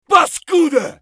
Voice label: bear battlecry; eft